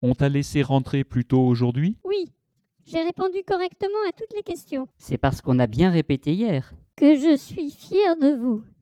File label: dialog